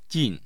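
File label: "jin", Mandarin Chinese, tone 4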